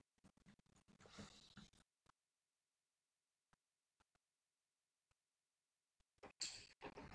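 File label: "hold", spoken word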